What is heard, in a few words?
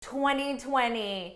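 2020 American pronunciation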